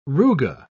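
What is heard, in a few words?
"ruga", English, British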